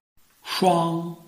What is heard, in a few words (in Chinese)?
shuang1, Chinese